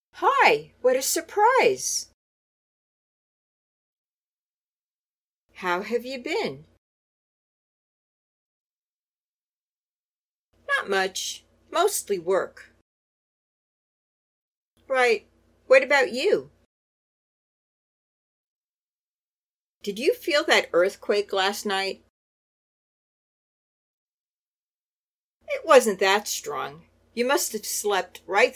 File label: Natural Dialog A part